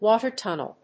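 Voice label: "Water Tunnel", English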